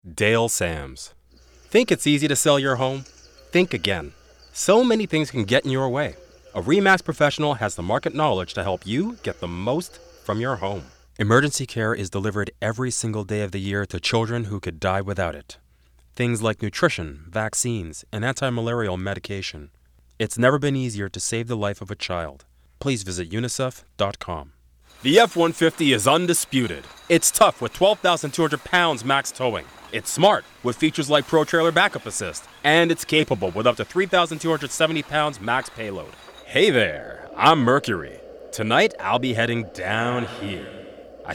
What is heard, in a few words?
Commercial